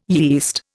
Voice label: "yeast", Indian